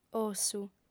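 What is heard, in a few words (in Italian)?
"ossu", lingua sarda, fem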